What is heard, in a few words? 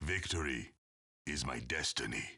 voice sample EN; Meta Knight